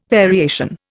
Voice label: "variation", Indian